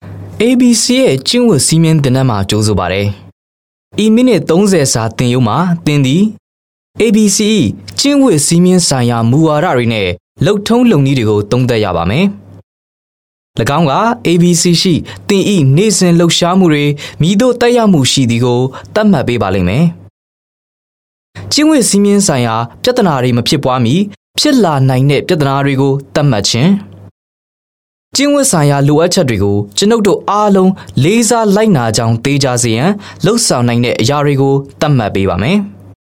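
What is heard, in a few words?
m Burmese E-Learning, male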